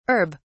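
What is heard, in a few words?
"herb(s)", British English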